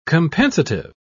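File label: "compensative", British English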